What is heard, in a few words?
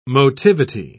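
"motivity", British English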